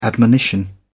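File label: "admonition", British English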